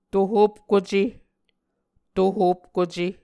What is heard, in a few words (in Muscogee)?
"Tohopkuce", Muscogee